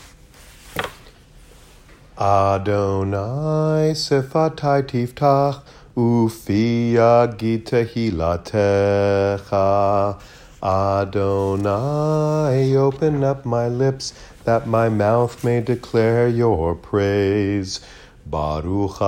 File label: Male Voice Avot